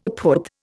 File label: "phut", Indian; Dictionary